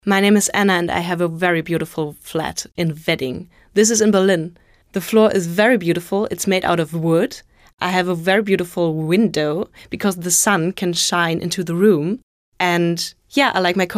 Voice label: englisch

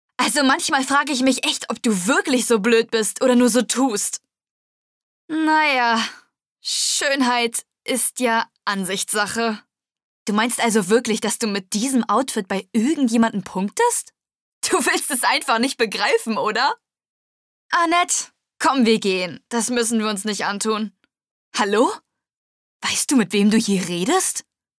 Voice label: Arrogant, Lip-Sync (Synchron); Game, Commercial (Werbung)

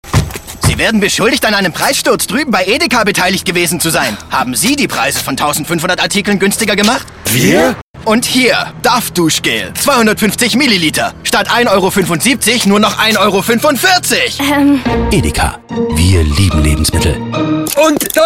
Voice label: DEUTSCH MALE Werbung Rollen Operation Preissturz; EDEKA; Operation Preissturz bei EDEKA; LOFT VOICES

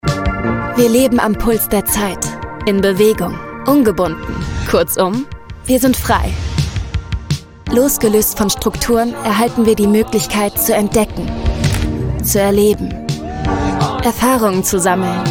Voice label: Imagefilm; Wir Leben Am Puls Der Zeit; LOFT VOICES

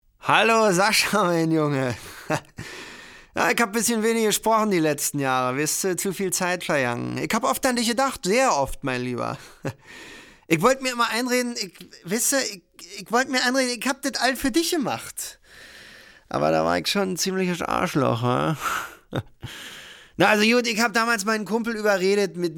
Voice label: dialekt

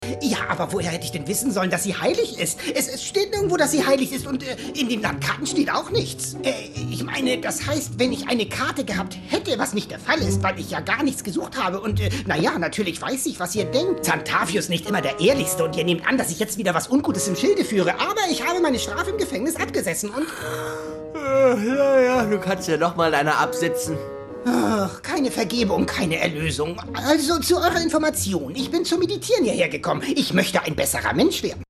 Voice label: trickstimme

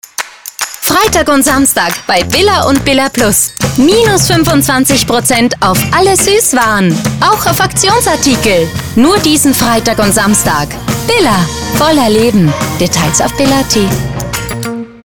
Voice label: HF Spot; BILLA

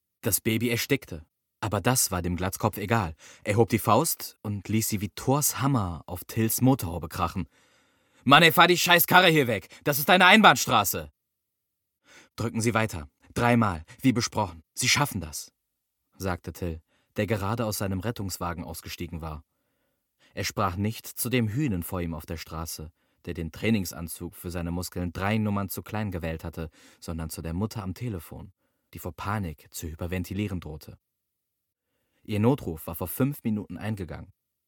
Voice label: Hoerbuch; der Hörverlag / hr2 Kultur

